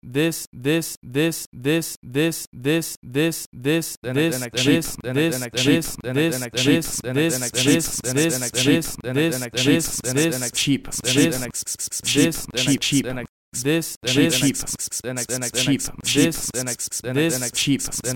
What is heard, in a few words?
Mic Test